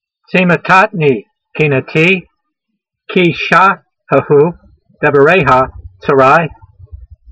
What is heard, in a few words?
voice, hebrew language; Psalm 119:139; tseemetatnee keen'a'tee, kee-shahe'hoo devareyha tsarai